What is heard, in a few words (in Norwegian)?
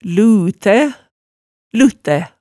"lutæ", hemsedalsmaalet tale